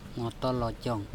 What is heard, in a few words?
"ngOtOlocOng", Andamanese; road narrow